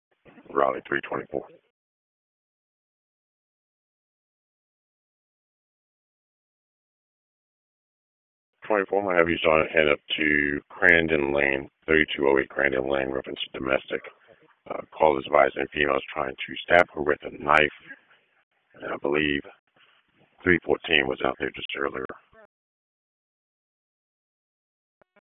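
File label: Police Northeast District Disp, radio; Raleigh; p25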